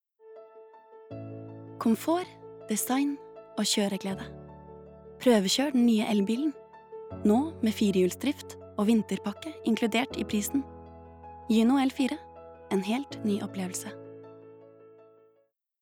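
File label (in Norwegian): no reklame soft, Norwegian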